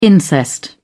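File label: "incest", British English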